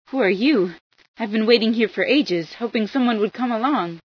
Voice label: who are you, female

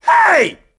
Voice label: "watch it", grunt; nimble grunt

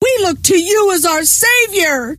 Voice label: friendly fire, scientist female

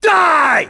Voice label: "covering", grunt; nimble grunt

